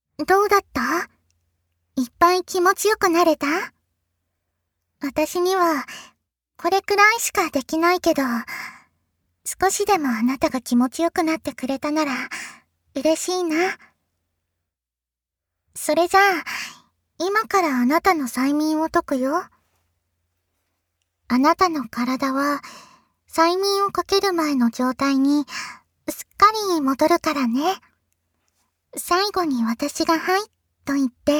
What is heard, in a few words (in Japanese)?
催眠解除, ASMR